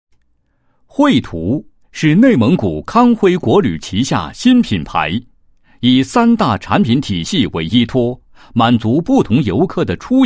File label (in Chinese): 男 产品介绍; 旅游; 康辉旅游汇途在线